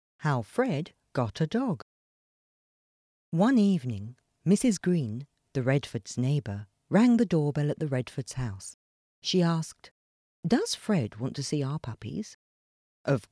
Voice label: E-Learning; How Fred Got a Dog